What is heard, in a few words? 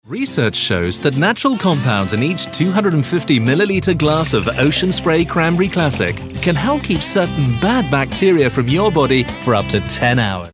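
British commercial health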